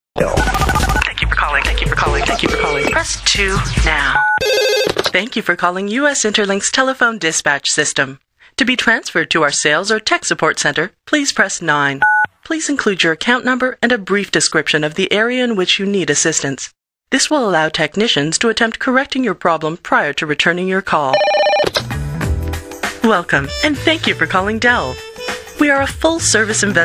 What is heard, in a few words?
IVR